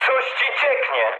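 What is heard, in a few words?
tank damaged mood med, speech Polish; War Thunder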